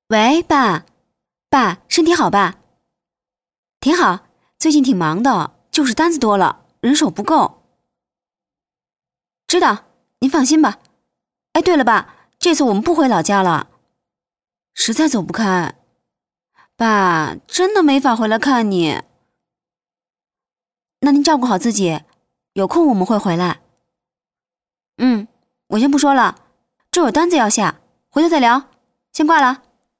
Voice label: 女 角色 女儿打电话给父亲

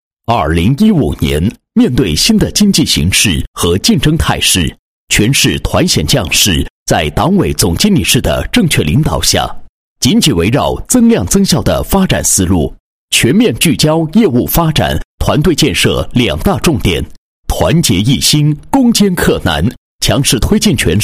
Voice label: 男-汇报-团险工作回顾, 配音案例

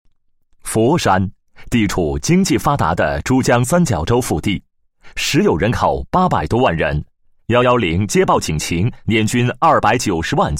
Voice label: 男 公安 宣传介绍; 佛山公安警察宣传介绍

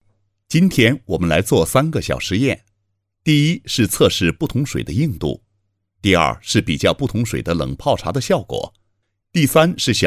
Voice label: 男 实验解说 关于水的三个实验

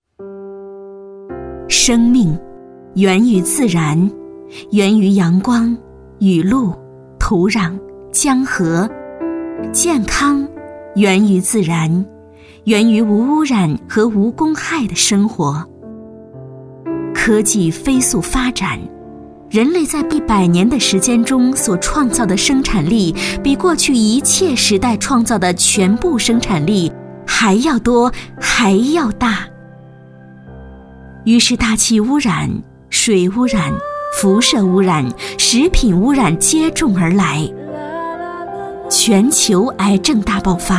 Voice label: 女 悲情; 爱预见; 国语女声配音

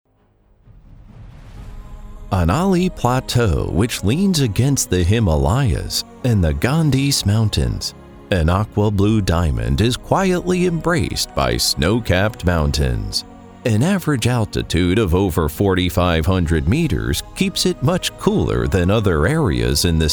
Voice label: 记录片; 喜马拉雅

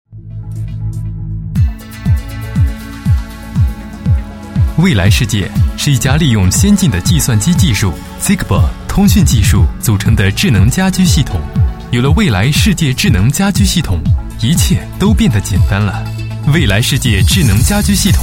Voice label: 男 宣传广告 智能家居系统; 未来世界

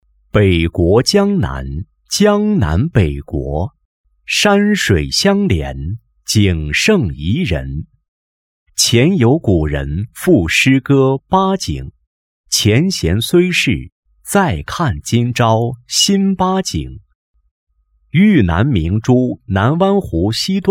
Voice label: 男, 专题, 旅游专题; 八景风景

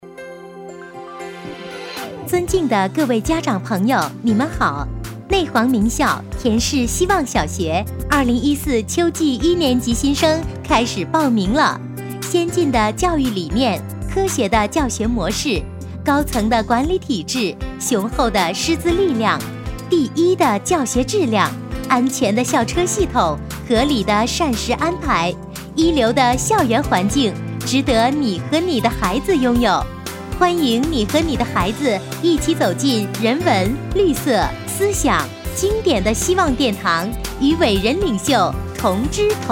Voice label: 女 专题 招生信息, 国语女声配音; 省台女配

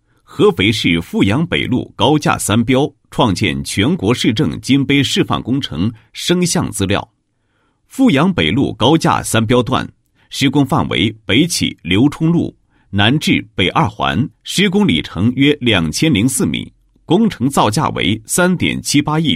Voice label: 男 解说词; 阜阳北路市政金杯解说词

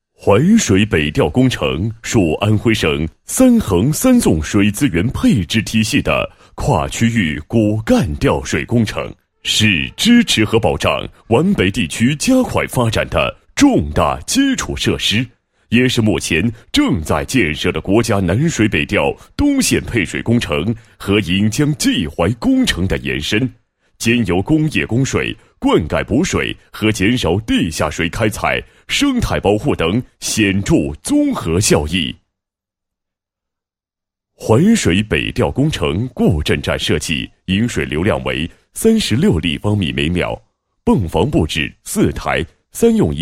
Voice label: 男 解说 工程文明创建解说词配音; 淮水北调工程文明创建解说词配音

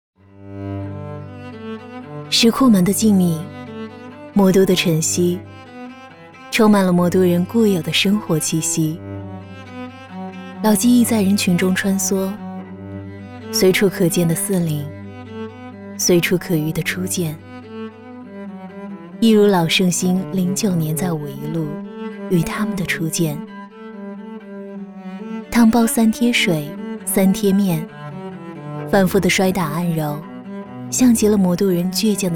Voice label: 女 旁白 成熟 自然讲述; 老盛兴

